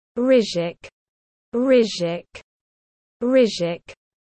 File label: "Ryzhik", russian dog names